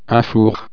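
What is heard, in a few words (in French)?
"four", French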